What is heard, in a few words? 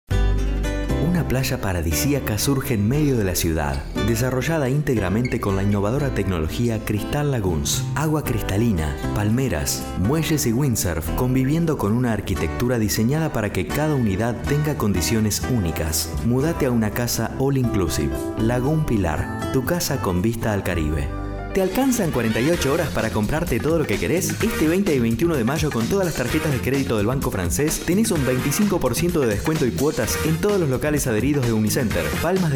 Commercial Spanish Male